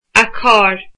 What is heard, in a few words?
"her", Irish